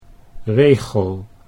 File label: "regel", Dutch